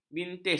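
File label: "bintis", Malay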